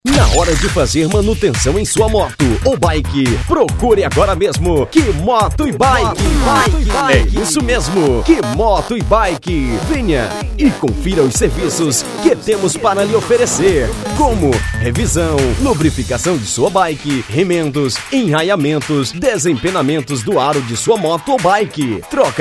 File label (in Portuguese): spot, locutor; Ky moto e baike spot; DT Publicidades